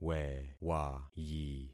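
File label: "wewayi", concatenated syllables; Affixing experiment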